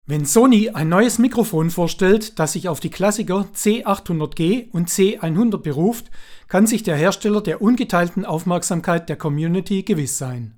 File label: C-80 Voice